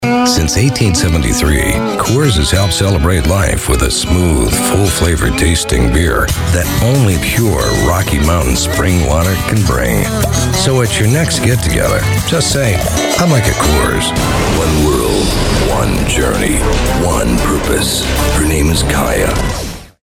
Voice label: english northamerican voiceover locutor norteamericano